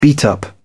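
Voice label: "beat up", British English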